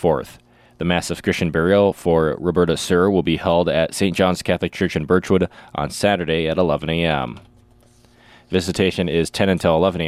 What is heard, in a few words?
Funeral Announcements